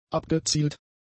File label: "abgezielt", German; adjectives